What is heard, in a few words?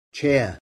"FUR chair", English spelling